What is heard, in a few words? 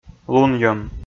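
"лунйӧм", Komi, male; kpv audio dict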